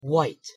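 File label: "white", English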